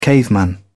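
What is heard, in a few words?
"caveman", British English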